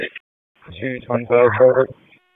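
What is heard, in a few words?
Police Dispatch, p25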